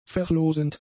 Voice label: "verlosend", German; adjectives

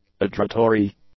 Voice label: "adjuratory", English; adjectives

